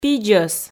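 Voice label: "пидзӧс", Komi, female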